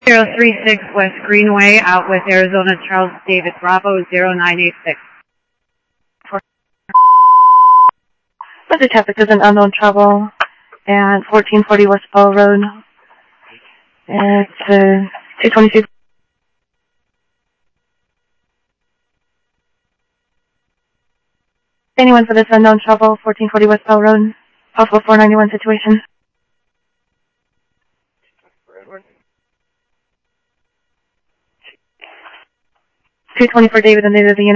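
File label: Phoenix police department, scanner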